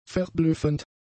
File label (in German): "verblüffend", German; adjectives